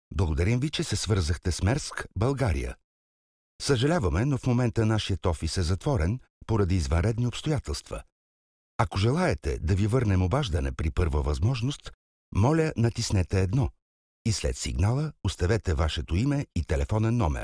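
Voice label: Bulgarian, male, IVR; voice demos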